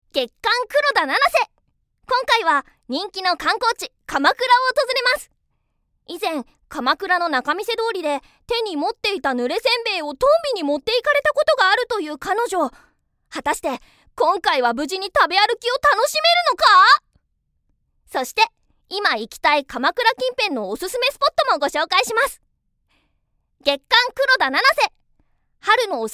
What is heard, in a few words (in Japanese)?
女性 地声